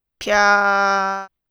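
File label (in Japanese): "ぴゃ", Japanese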